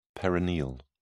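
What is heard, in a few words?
"perineal", British English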